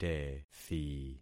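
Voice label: "tethi", concatenated syllables; Affixing experiment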